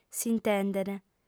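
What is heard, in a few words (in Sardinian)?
"s'intendent", female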